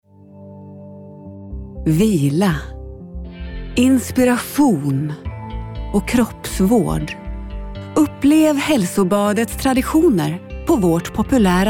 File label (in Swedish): Reklam m bakgrund